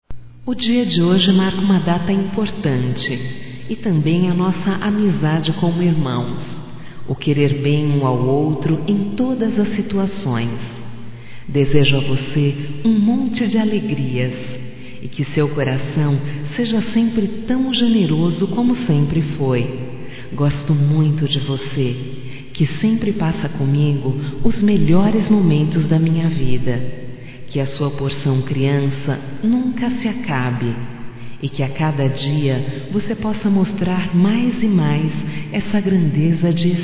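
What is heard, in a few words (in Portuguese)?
female, Telemensagens Aniversarios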